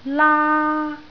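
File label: "laa1", Cantonese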